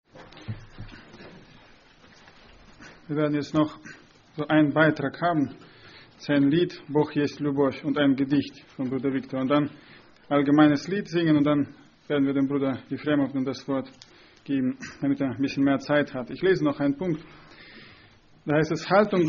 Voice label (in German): ansage; Братское (Германия, 04-25)